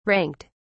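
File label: "ranked", British English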